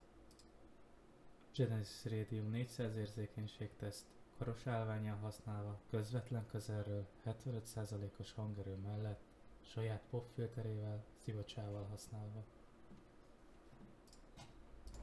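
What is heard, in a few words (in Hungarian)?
Radium 400 75, 75% hangerő (érzékenység), mikrofon, zajosabb környezet; Genesis Radium 400; Normál használat – avagy a mikrofon előttünk van a saját állványával és a számítógép nem éppen csendes.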